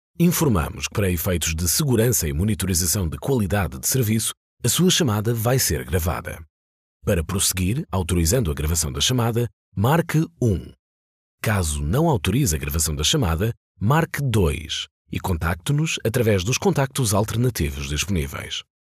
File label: MALE portugues IVR